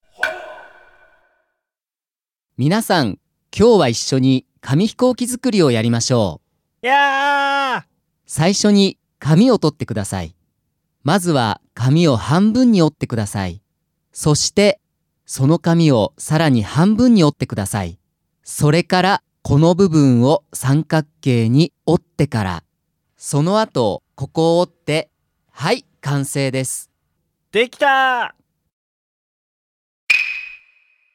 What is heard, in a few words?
dialog; JapanesePod101; Beginner Season 1